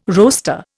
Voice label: "roaster", Indian